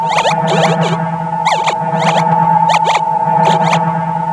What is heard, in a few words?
Alien talk; Word Wizard Games